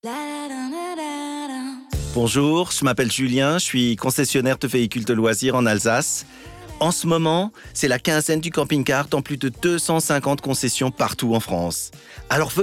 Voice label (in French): accent alsacien